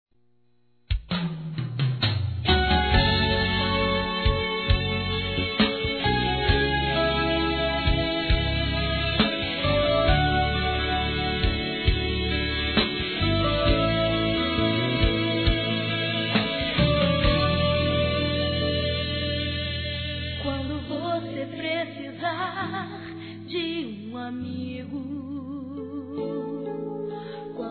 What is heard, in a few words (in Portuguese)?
Aniversário Irmão Evangelico Feminino; Aniversários